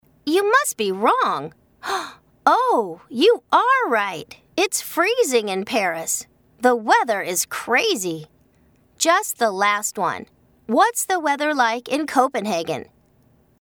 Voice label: locutora niña estadounidense american child voiceover